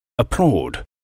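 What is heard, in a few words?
"applaud", English; to applaud; bić brawo, klaskać, oklask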